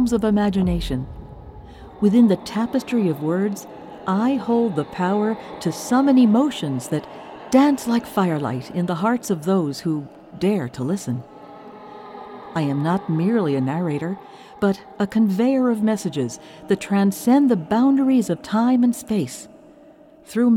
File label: Storyteller